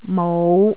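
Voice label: "mou5", Cantonese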